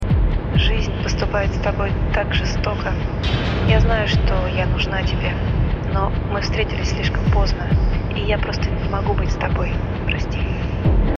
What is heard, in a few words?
russian